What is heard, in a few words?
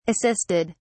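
"assisted", British English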